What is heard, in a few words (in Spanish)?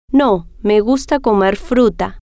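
no me gusta comer fruta, Spanish, female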